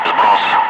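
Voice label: Russian speech, Ka-50 Wingman; bombs away; DCS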